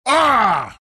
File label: pain; bristleback